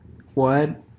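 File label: "what" English, US, unstressed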